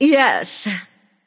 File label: emote yes; smite; arachne